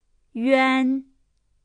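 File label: "üan", Mandarin Chinese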